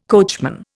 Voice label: "coachman", Indian